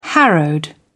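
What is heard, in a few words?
"harrowed", British English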